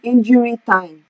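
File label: "injury time", Nigerian Pidgin, female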